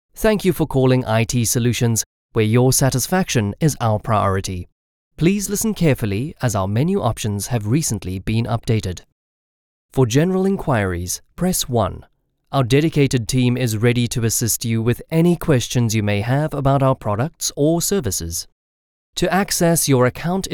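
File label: Afrikaans male South African English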